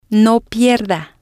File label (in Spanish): no pierda, Spanish